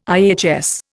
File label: "IHS", Indian